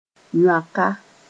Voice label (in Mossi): "yõaka", moore